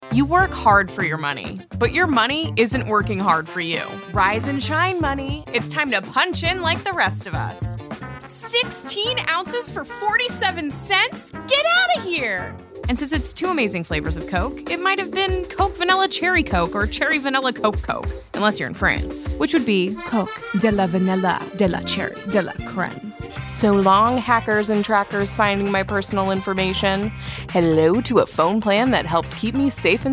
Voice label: Commercial Reel